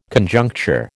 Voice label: "Conjuncture", American